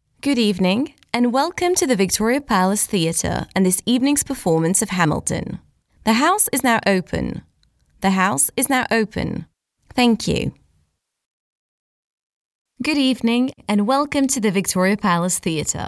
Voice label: French female, system announcements

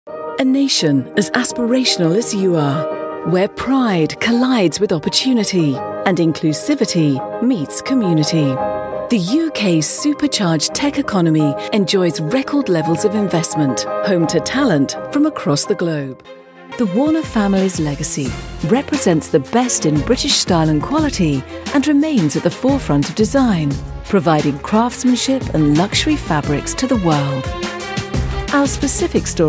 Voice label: corporate

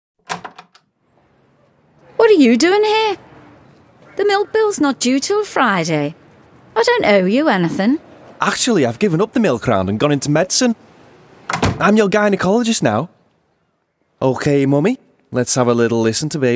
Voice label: character

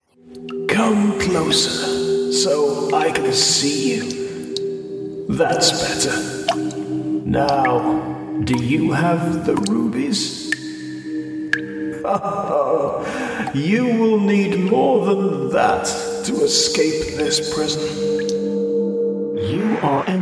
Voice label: video game voice; voice reel

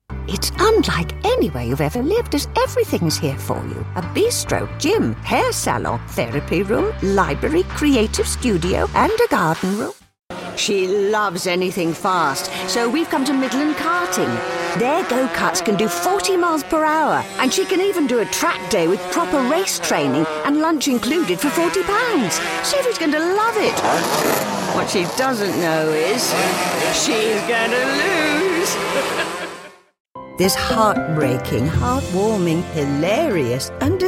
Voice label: commercial older voices

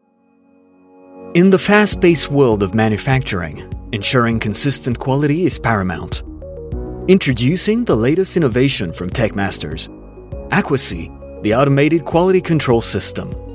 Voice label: french male english

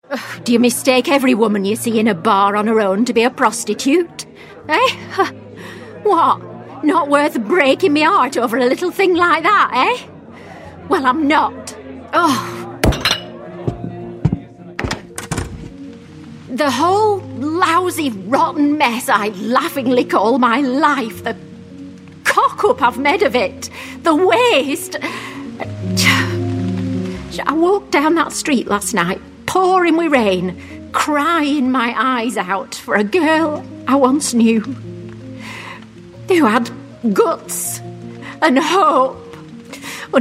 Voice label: voice acting

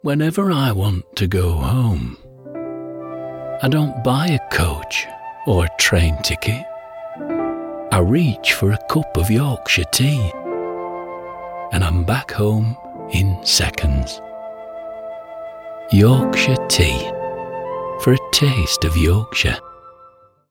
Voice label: advert; yorkshire tea

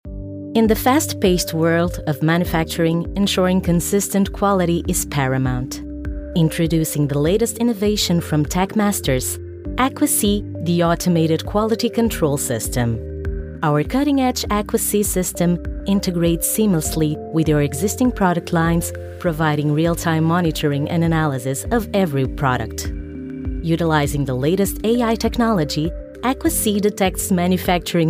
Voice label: portuguese female english

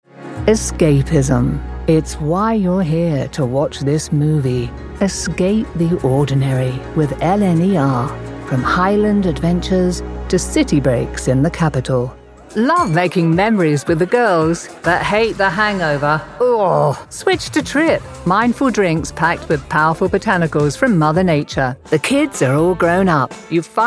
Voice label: commercial

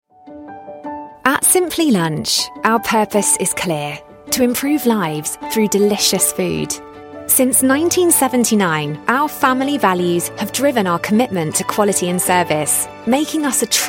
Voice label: inspiring narration

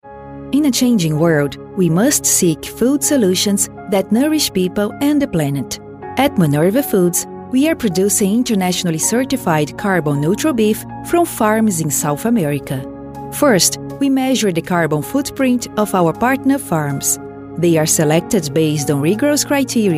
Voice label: brazilian voice english

